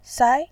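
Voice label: "sai", Mandarin Chinese, second tone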